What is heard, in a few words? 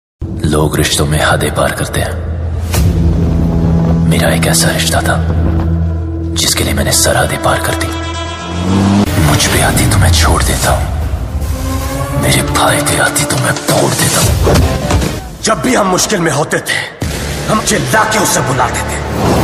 attitude dialogue ringtone hindi dialogue; killer dialogue; tiger shroff; baaghi 3; south movie